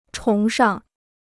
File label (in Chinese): "崇尚", Chinese